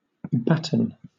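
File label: "batten", British English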